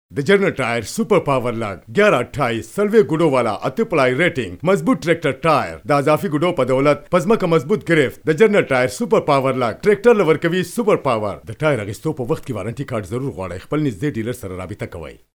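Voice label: pashto male voice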